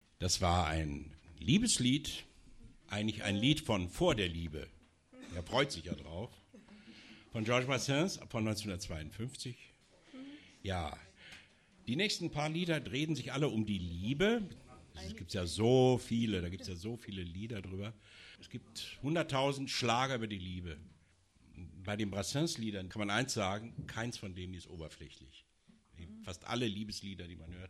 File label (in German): ansage; abgesang